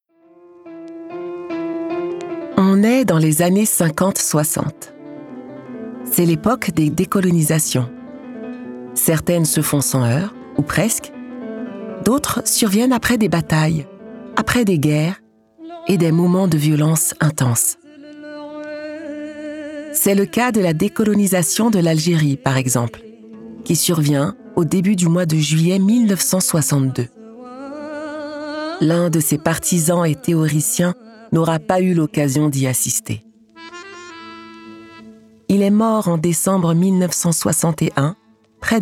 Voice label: DOCU; Voix Off sur docu Frantz FANON

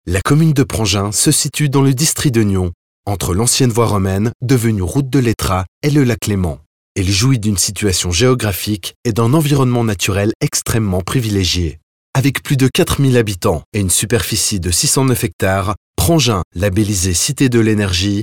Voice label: Corporate Film; présentation Prangins

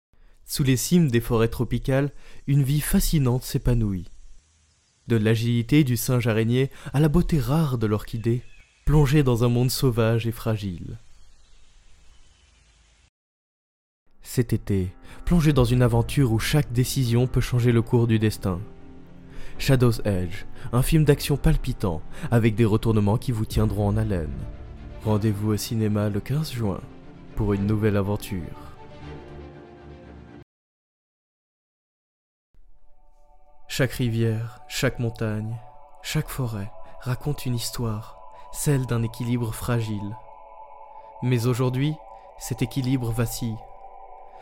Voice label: Video Narration

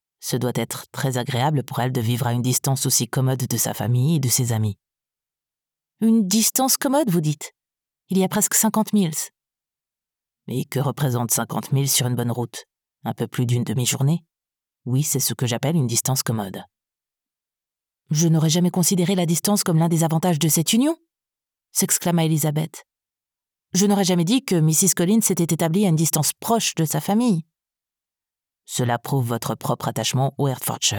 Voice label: Dialogue Narration FR

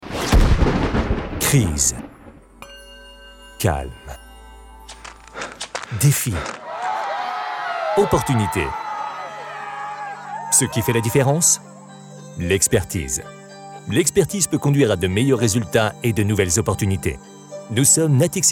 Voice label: commercial; Natixis

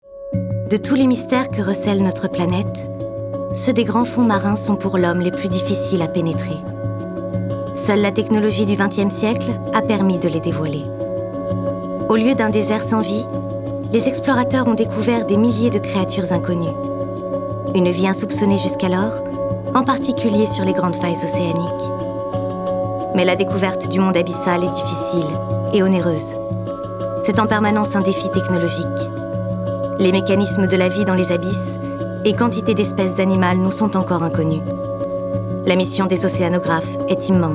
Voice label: DOCUMENTARY

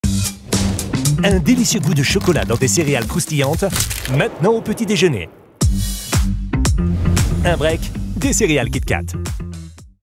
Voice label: commercial TV cereales; kit-kat

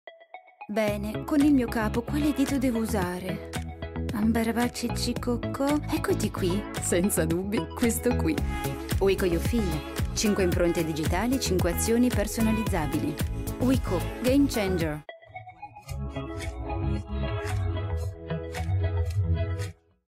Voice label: spot; WIKO UFEEL

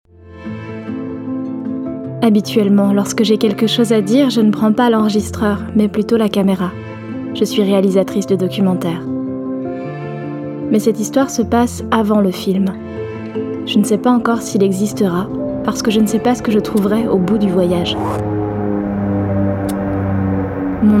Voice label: podcast trailer

